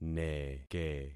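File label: "neke", concatenated syllables; Affixing experiment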